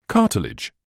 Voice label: "cartilage", medical english uk; ˈkɑː.təl.ɪdʒ; KAH-tuhl-ij